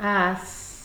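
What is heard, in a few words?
"as", Nigerian Pidgin, female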